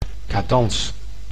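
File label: "cadans", Dutch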